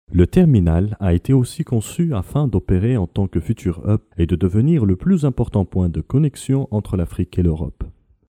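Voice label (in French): African French, male, narration; voice demos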